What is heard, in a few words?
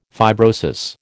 "fibrosis", American